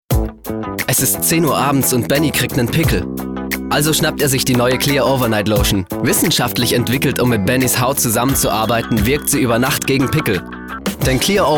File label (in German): Fremdtake Dynamisch